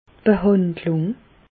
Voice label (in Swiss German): "behandlung", Bas Rhin; medecine; traitement